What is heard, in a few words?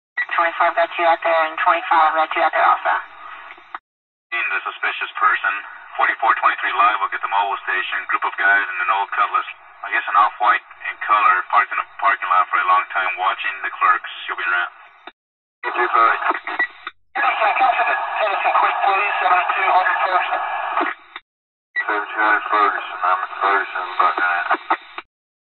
Radio police Transmi, static voices